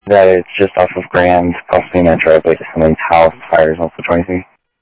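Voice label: Sheriff West County, scanner audio trunk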